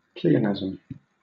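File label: "pleonasm", British English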